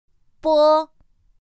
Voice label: "b", pinyin; pinyin2; pinyinji

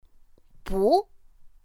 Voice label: "bú", pinyin; pinyin2; pinyinji

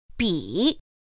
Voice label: "bǐ", pinyin; pinyin2; pinyinji

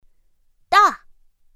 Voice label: "dà", pinyin; pinyin2; pinyinji

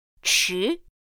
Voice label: "chí", pinyin; pinyin2; pinyinji

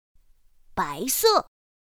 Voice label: "báisè", pinyin; pinyin2; pinyinji

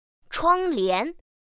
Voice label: "chuānglián", pinyin; pinyin2; pinyinji

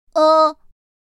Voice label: "e", pinyin; pinyin2; pinyinji

